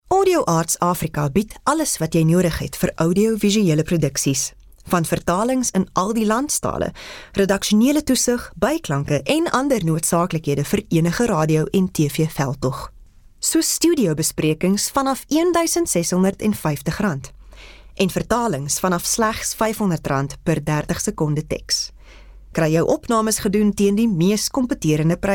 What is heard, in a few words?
Afrikaans Retail